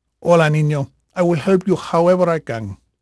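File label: Spanish male, voice; Pirates Of The Caribbean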